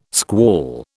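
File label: "squall", British English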